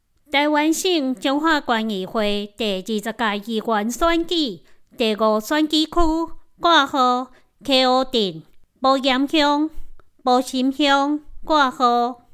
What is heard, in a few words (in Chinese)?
臺語; 彰化縣; 有聲公報; 縣議員; 第五選舉區